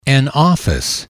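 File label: "an office", English, male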